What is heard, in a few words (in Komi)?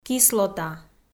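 "кислота", Komi, female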